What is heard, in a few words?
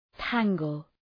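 "tangle", English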